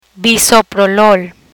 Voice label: "Bisoprolol", Spanish; hypertension therapy